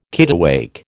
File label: "kittiwake", American